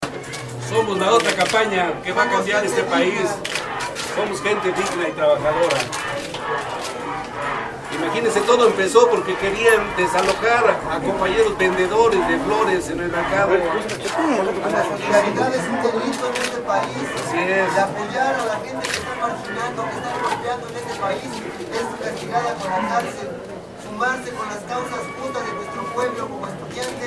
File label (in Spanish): hombre; presas del penal de santiaguito; somos la otra